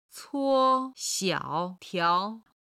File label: "cuo1 xiao3 tiao2", Chinese